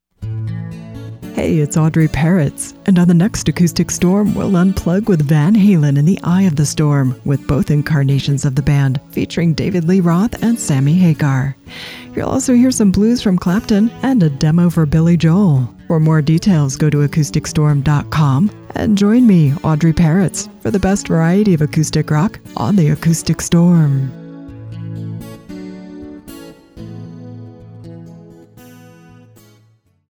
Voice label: PROMO; Acoustic Storm; USRN-FM; kkcl-am